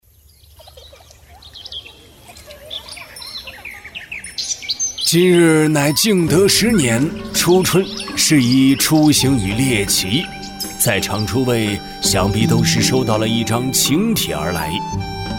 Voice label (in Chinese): 男 密室 老说书人